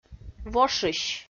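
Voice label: "вошысь", Komi, female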